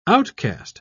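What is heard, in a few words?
"outcaste", British English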